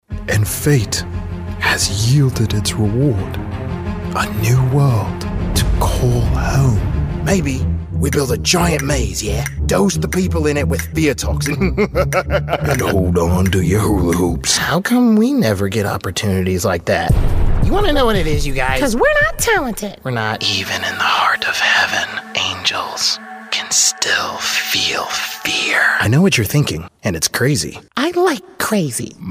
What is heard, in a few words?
Animation